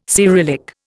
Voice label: "Cyrillic", Indian

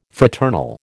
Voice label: "fraternal", American

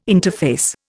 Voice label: "interface", Indian

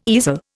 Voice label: "easel", Indian

